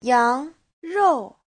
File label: "yang2 rou4", Chinese